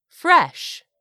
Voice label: "fresh", English; 3000 words

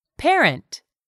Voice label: "parent", English; 3000 words

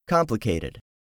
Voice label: "complicated", English; 3000 words

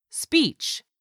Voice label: "speech", English; 3000 words

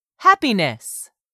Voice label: "happiness", English; 3000 words

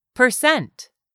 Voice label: "PERCENT", English; 3000 words

